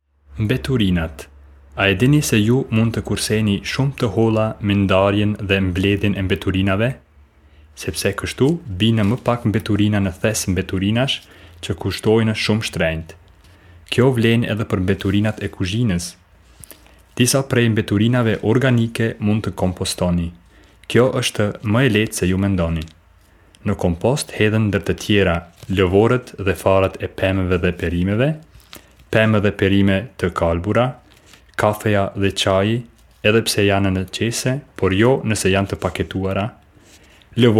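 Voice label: Albanian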